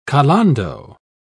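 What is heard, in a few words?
"calando", English, British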